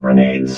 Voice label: "grenades", robot